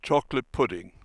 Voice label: "chocolate", british